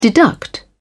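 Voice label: "deduct", British English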